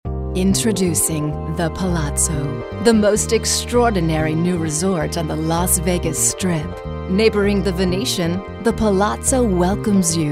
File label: sexy